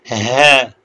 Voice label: short voyels arabic alphabet